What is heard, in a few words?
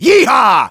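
battle cry, German; Engineer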